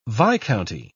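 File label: "viscounty", English, British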